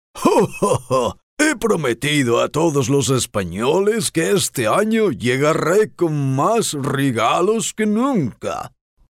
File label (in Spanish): papa noel, Locutores navideños; Voz de Papá Noel. Locutor y actor de doblaje